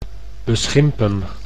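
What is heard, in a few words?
"beschimpen", Dutch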